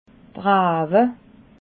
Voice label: "rawe", alsace

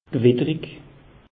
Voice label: "gewitterig", alsace; meteo

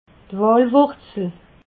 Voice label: "walwurzel", alsace

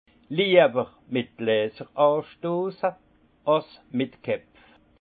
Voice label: "liewer met glasser", alsace; vin; plutôt trinquer avec des verres que de se cogner les têtes

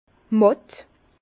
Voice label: "matt", Bas Rhin; vin; mou

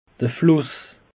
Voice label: "fluss", alsace; peche

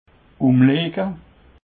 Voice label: "umlege", Alsace

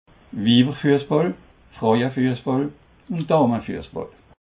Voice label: "wiwerfussball", Alsace

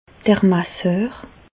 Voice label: "masseur", Bas Rhin; kinésithérapeute; medecine

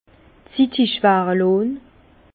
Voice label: "zittig", Bas Rhin; vin; maturation

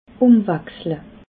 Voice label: "umwachsle", alsace

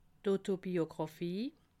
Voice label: "autobiographie", alsace

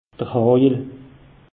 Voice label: "hajel", alsace; meteo

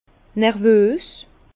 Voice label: "nervos", Bas Rhin; vin; nerveux

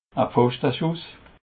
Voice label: "pfochteschuss", alsace

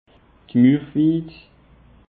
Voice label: "murwiid", alsace; peche

